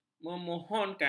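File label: "mengehon", Malay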